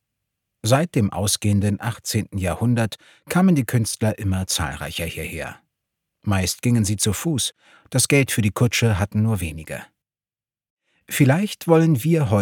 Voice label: Audio Guide Harz Vorstellung

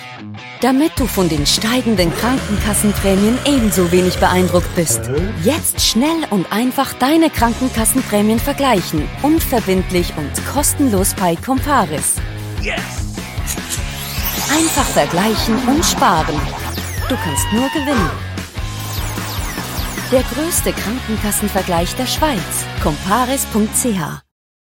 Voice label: Deutsch; Comparis Praemienmonster

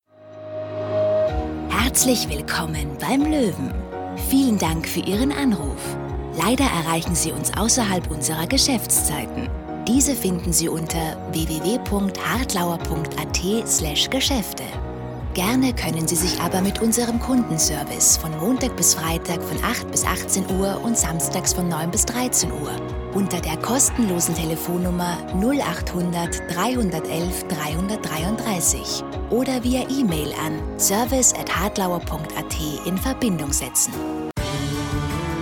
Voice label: Telefonschleife; LOFT VOICES

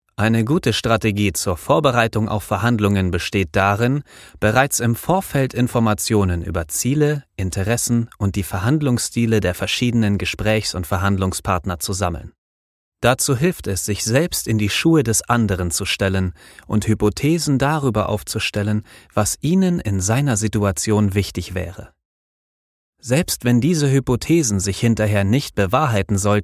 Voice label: Neutrale Stimmprobe serioes informativ verstaendlich; LOFT VOICES

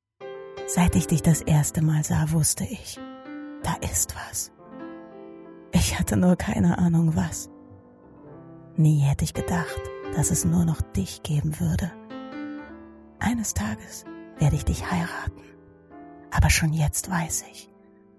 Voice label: Werbung romantisch; LOFT VOICES

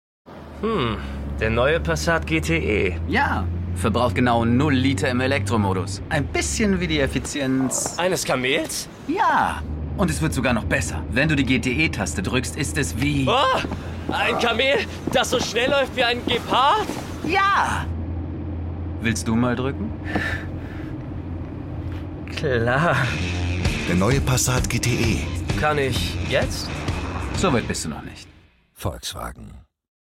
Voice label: werbung synchron; volkswagen passat gte; kamel reiten

